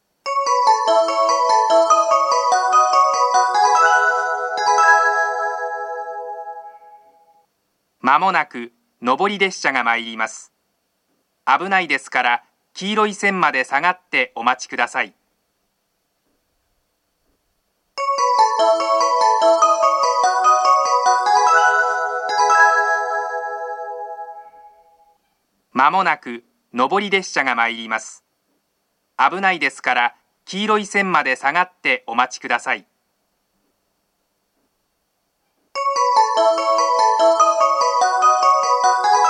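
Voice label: platform 3 approaching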